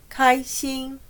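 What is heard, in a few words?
"开心", Chinese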